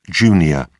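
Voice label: "jun", British English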